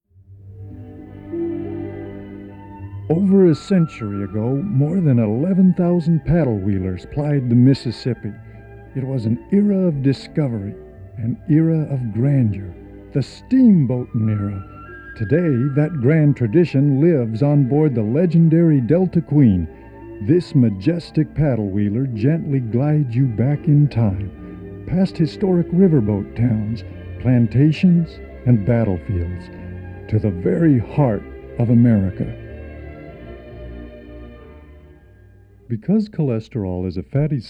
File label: narration